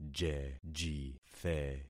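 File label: "jejithe", concatenated syllables; Affixing experiment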